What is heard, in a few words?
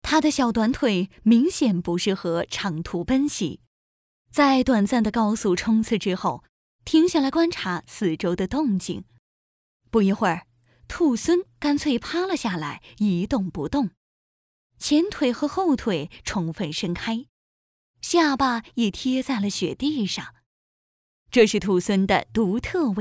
Chinese female vo, smooth, commercial; 20-35